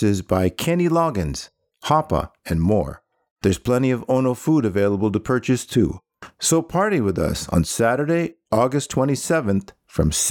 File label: Hawaii Local Accent